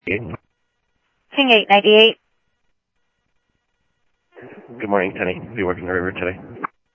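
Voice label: Lakes Sheriff Lake Patrol, scanner audio trunk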